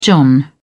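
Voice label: "john", British English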